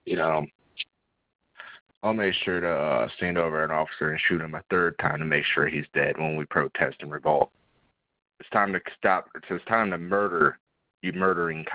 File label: Voice Message